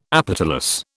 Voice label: "apetalous", British